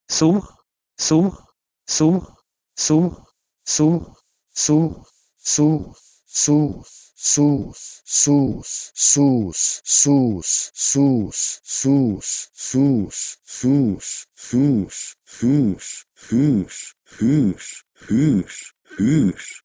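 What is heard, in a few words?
Proto-Indo-European suh1 to Ancient Greek hus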